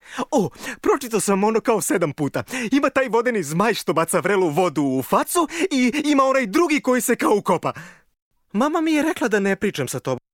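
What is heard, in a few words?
Serbian male character; voice demos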